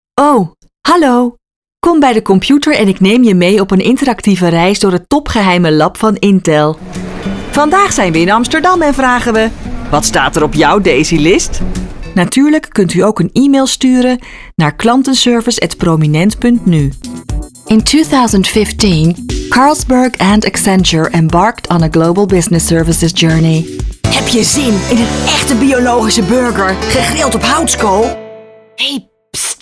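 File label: Dutch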